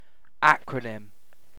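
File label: "acronym", British English; pronunciation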